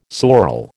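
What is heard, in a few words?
"sorel", American English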